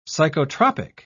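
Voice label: "psychotropic", British English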